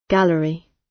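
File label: "gallery" British English; The arts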